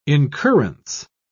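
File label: "incurrence", British English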